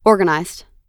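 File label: "organized", US English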